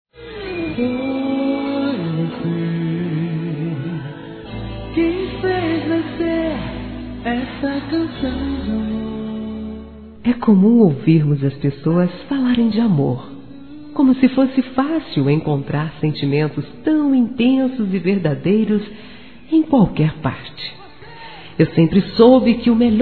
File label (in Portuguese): Evangélico Aniversário Romantico Feminino; Aniversários